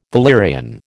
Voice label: "valerian", American